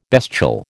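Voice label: "bestial", American English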